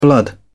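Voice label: "blood", British English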